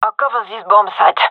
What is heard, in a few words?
guarding bombsite, fem; vo